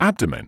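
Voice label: "abdomen", British English; AB-duh-muhn; ˈæb.də.mən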